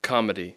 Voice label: "comedy", English